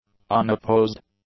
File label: "unopposed", English; adjectives